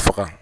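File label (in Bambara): "faga", Bambara word; actions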